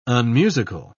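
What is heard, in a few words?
"unmusical", British English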